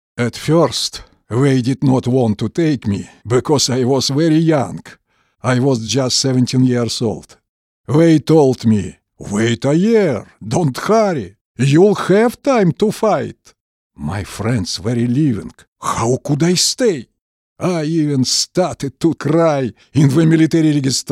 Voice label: Russian War Vet